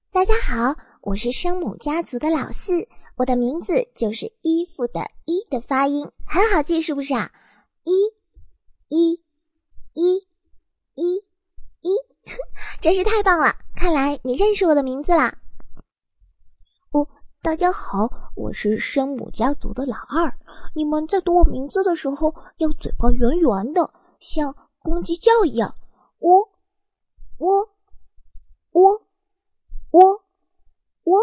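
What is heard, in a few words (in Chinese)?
女模仿 字母换音色童声模仿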